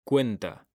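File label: "CUENTA", Spanish; mekanta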